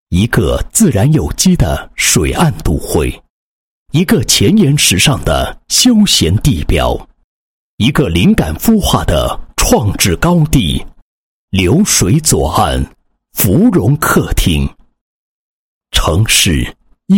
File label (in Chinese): 男 专题 城市宣传介绍专题; 长沙